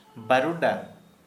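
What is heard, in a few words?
Santali male toad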